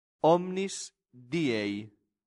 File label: "omnis diei", latin; santillana